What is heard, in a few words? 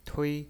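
"tui", pinyin voice, first tone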